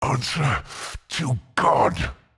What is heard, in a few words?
Mid Fight Taunts; Voll; NPC; Voll Combat